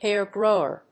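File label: "hair grower", English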